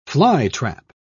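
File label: "flytrap", British English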